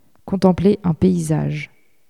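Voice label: "contempler un paysage", French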